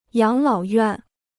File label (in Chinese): "养老院", Chinese